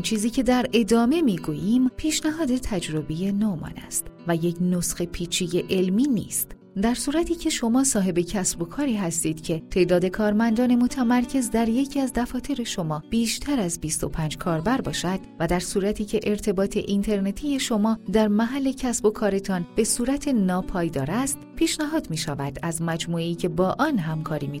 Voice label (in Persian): تلفن گویای مجازی